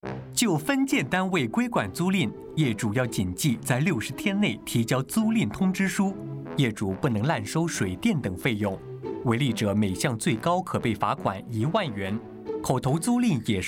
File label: Tenancy Control of Subdivided Units Radio Putonghua